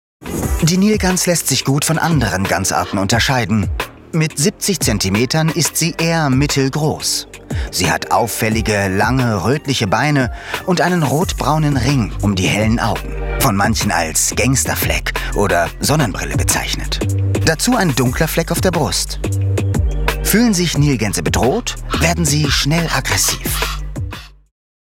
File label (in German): Doku Kommentar Nilgans